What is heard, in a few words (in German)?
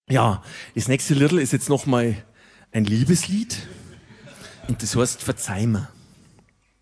Ansage